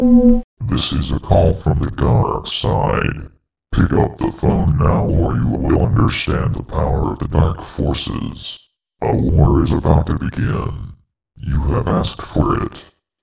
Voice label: Evil Speach